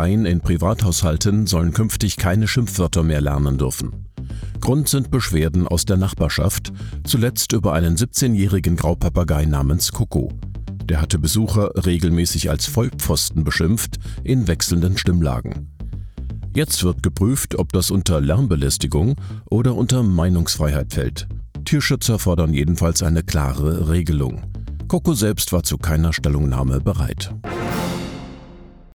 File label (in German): Radio Nachrichten, sprecher